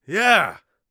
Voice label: yes, Warrior Voice; Warrior Voice Pack

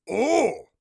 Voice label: surprised, orc voice; Orc Voice Pack

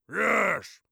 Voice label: yes, orc voice; Orc Voice Pack

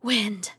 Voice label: wind, RPG Voice; RPG Voice Starter Pack

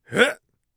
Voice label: hut, Warrior Voice; Warrior Voice Pack

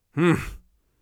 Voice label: irritated, Mage Voice Pack

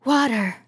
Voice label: "water", RPG Voice; RPG Voice Starter Pack

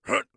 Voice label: hut, orc voice; Orc Voice Pack

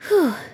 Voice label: healed, RPG Voice; RPG Voice Starter Pack

